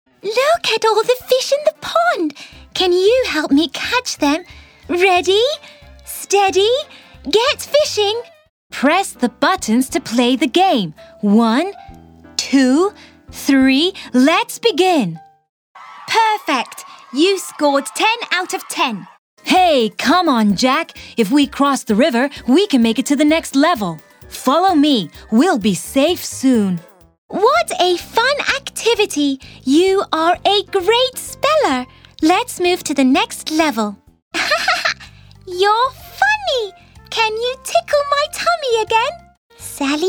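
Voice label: British English, toys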